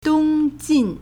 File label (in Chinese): "dong1jin4", Chinese